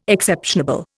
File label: "exceptionable", Indian